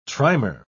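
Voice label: "trimer", British English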